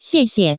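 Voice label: "xiexie", Taiwan Mandarin; delivery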